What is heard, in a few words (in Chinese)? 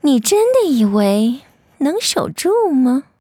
女